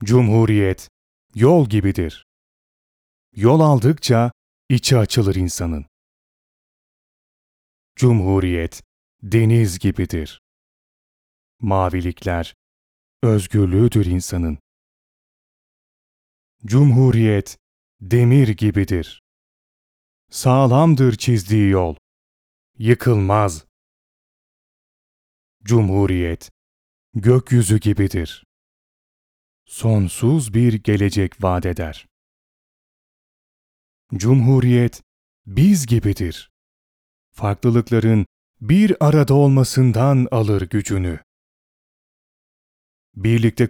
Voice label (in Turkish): lojistik seslen